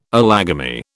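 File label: "allogamy", British English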